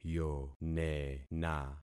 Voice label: "yonena", concat syllables; Affixing experiment